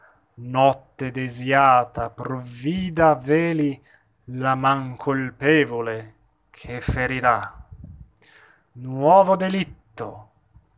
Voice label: La luce langue espressivo; Macbeth

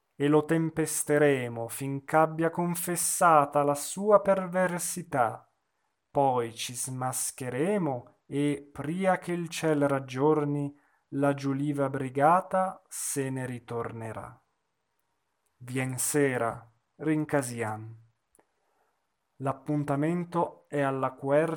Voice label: espressivo; Falstaff

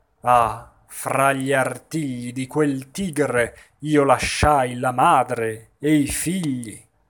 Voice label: espressivo; Macbeth; Ah la paterna mano

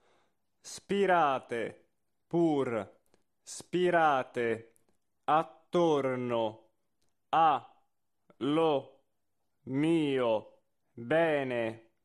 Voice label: monotono; Donaudy

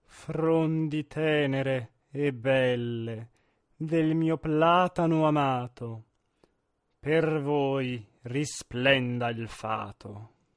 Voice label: espressivo

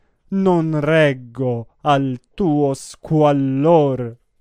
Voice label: espressivo; Madama Butterfly; Addio fiorito asil

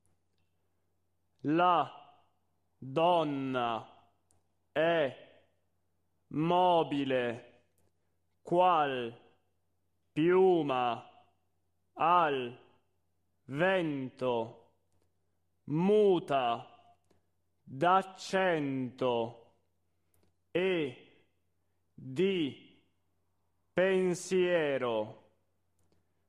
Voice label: monotono; Rigoletto

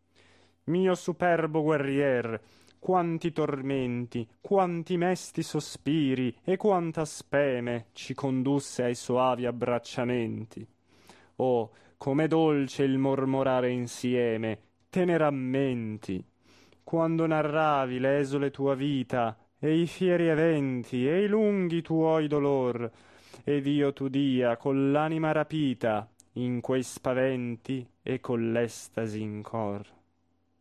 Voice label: espressivo; Otello

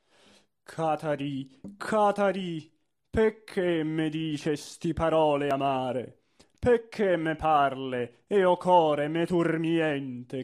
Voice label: Core ngrato espressivo; Cardillo

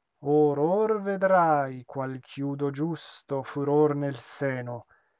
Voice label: espressivo; Otello; Ah! vieni nel tuo sangue

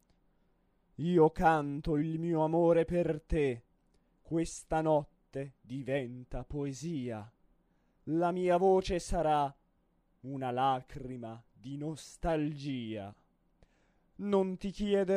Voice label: Quando l amore diventa poesia espressivo

